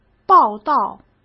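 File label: "报道", Mandarin Chinese; chinese flashcards; hsk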